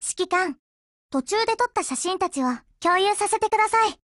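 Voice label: OPERATION OVER Japanese, Voice; GF Alarm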